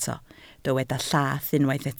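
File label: "llath" Welsh, spoken word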